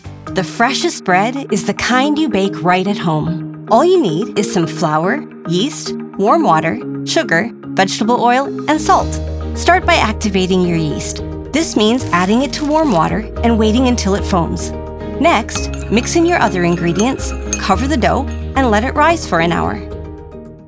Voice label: Cooking Narration